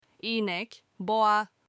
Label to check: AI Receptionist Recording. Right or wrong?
Wrong